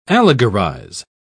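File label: "allegorize", British English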